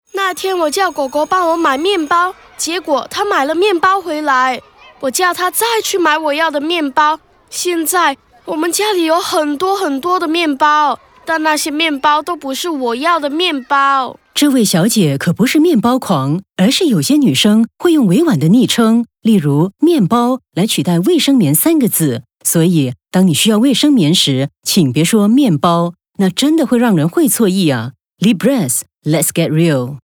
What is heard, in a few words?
mand; libress